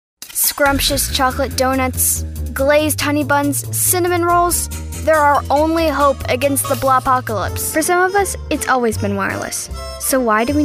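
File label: Commercial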